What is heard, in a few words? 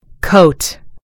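"coat", American English; gcompris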